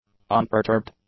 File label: "unperturbed", english; adjectives